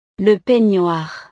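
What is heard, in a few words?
"le peignoir", French; ropa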